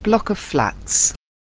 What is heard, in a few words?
"block", English